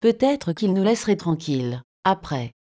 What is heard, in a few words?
nphones synth